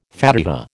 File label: "Fatiha", American